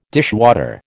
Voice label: "dishwater", American